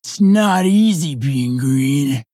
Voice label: Female Orc Attack